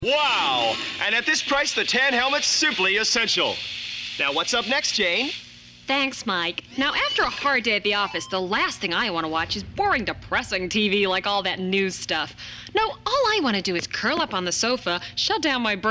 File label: promo American Accent; e4